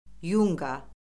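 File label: "yunqa", Azerbaijani; Orfoqrafiya Lüğəti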